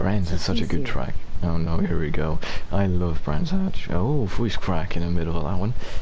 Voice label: voice crackle